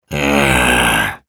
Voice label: negative vocalization, ru